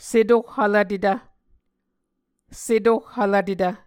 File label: "setohhvlvtetv", Muscogee; Mvskoke Words with Repeated Consonants; Onvkv Ohhvyayices; A Dictionary of Creek/Muskogee; SIL International